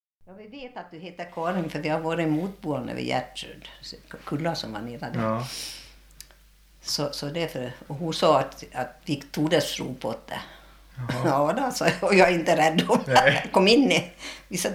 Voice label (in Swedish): St Tuna, dialekter; Svenska sagor; DAL; Folkminnen